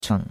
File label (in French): "cheng3", chinois; Cours de Chinois